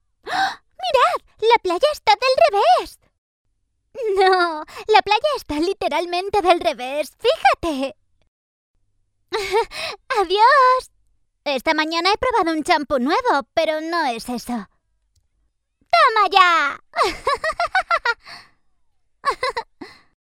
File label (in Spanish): locutora infantil voz niña dibujos